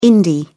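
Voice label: "indie", British English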